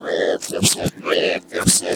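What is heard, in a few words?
effects talk, Funky Vocals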